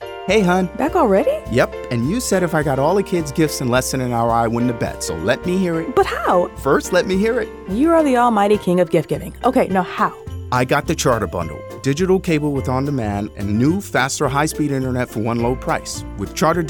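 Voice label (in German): US English, Werbung, Neutral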